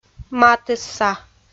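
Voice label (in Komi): "матысса", Komi-Zyrian, female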